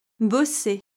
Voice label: "bosser", French